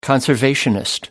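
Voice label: "conservationist", British English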